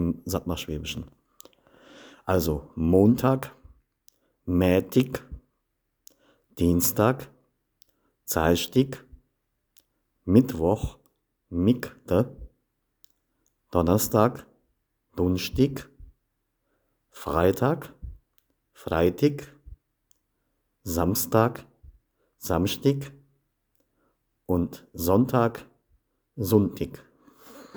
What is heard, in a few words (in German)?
Wochentage im sathmarschwaebischen Dialekt; schuelerkalender